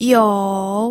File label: "you3", pinyin